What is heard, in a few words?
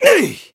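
Voice attack, Japanese; shion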